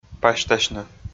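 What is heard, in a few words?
"пасьтасьны", Komi, male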